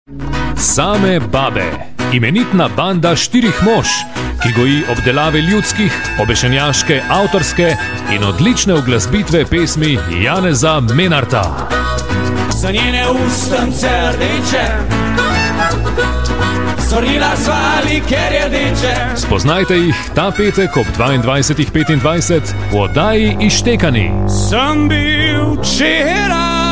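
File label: slovenian male commercial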